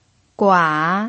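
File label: "gua" third tone, pinyin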